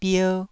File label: "bier1", taiwanese Syllables